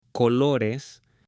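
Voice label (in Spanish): "COLORES", Spanish, male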